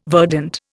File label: "verdant", Indian